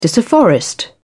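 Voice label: "disafforest", British English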